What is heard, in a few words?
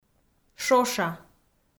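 "шоша", Komi, female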